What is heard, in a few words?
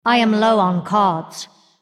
female undead low cards